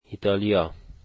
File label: "Italy", dari